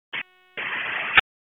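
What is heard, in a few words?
FIRE Operations, p25; FIRE OPS 1; wake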